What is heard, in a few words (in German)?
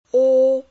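Phoneme, chinesisch; ao 1; Silben; Chinesischen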